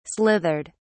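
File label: "slithered", British English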